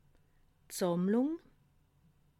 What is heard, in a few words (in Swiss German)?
"sammlung", alsace